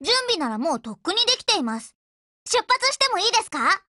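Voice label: OPERATION BEGIN Japanese, Voice; GF Alarm; BrowningHP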